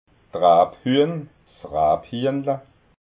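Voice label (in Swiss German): "raabhuehn", Alsatian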